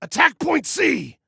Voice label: ATTACK, Male